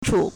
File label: "chǔ", Chinese